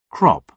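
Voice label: "crop", British English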